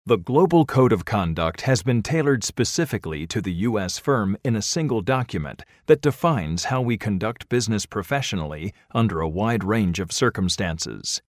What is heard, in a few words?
Corporate; Corporate Code of Conduct